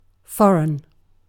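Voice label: "foreign", British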